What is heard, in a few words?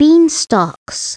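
"beanstalks", English; SoftKey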